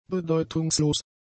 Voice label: "bedeutungslos", German; adjectives

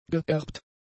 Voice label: "geerbt", German; adjectives

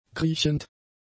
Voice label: "kriechend", German; adjectives

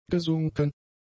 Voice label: "gesunken", german; adjectives

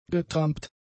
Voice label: "getrampt", german; adjectives

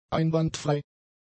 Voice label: "einwandfrei", german; adjectives

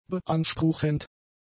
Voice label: "beanspruchend", German; adjectives

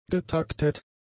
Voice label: "getaktet", german; adjectives

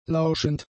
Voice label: "lauschend", German; adjectives

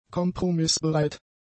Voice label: "kompromissbereit", German; adjectives

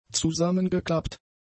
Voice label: "zusammengeklappt", German; adjectives

